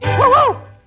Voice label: woo woo, cartoon; ooh ahh